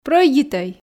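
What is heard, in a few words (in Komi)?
"прӧйдитӧй", Komi, female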